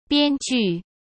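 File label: "编剧", Mandarin Chinese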